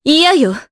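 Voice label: voice Deny, voices heroes, Japanese; Maria; kingsraid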